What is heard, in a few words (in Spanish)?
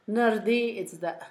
mudo, Zapoteco, Asunción Ocotlan; Adjetivos; INALI; Haspelmath